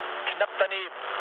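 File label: German bomb failure mood low, speech; War Thunder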